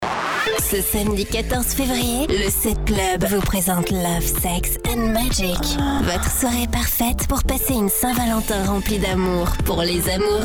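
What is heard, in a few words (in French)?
voix off femme spot radio, Voix sensuelle, sexy; SETT CLUB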